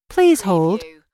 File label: US female phone prompt Please Hold; lynne publishing